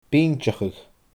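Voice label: "binndeachadh", Scottish Gaelic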